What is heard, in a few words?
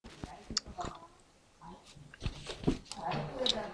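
"hip", spoken word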